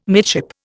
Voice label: "midship", Indian